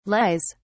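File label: "lies", British English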